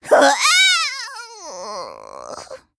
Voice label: Troll Death